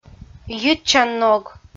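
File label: "йитчанног", Komi, female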